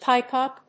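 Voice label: "pipe up", English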